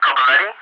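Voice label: "Kobuleti", English, ATC callsign; DCS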